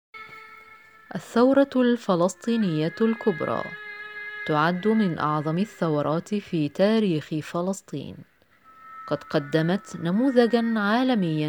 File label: Arabic Corporate Narrations